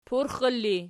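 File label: "purxela" ergative, Archi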